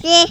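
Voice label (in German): "tschuss", German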